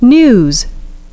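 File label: "news", english pronunciation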